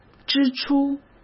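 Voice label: "支出", Mandarin Chinese; chinese-flashcards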